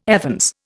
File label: "evens", Indian